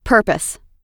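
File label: "purpose", American English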